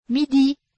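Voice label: "midi", French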